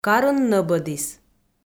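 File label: "карын нӧбӧдіс", Komi, female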